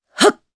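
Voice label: Vox Casting, Japanese; Morrah; kingsraid; voices heroes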